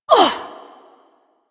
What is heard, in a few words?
Ability Throw, Barks; Warframe; Corpus Lich; Persona B